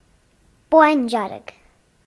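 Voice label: pink, Serbian; gcompris-data; colors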